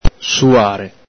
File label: "suare", Veneto